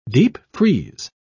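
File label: "deepfreeze", British English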